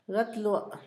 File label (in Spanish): pan amarillo, Zapoteco, Asunción Ocotlan; Alimento; INALI; Haspelmath